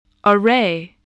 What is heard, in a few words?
"array", English